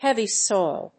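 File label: "heavy soil", English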